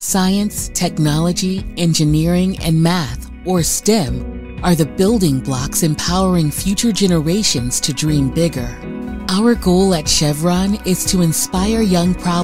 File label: Commercial Demo